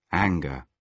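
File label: "anger", British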